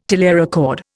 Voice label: "telerecord", Indian